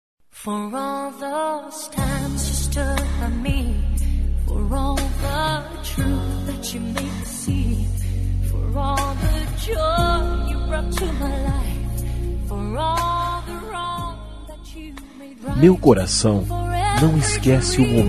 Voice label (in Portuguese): Telemensagem Romântica Eu Te Amo; Amor; Masc